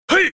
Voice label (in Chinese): "嘿"（粗犷男）